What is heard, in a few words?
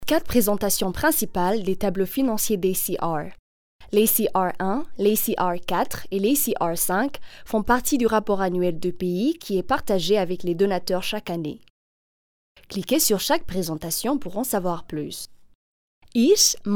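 African French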